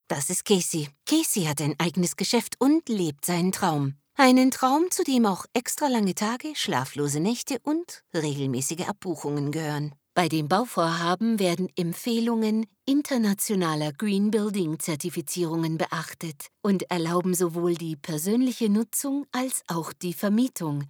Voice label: Conversational Narration German